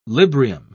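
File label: "librium", British English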